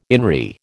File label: "in re", American